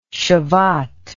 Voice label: "shevat", words